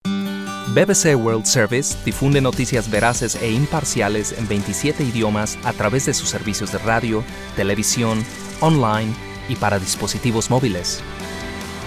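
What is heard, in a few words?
Spanish Narration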